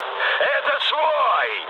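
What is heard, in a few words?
player friendly fire, mood med, Russian; War Thunder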